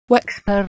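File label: "weksper", Proto-Indo-European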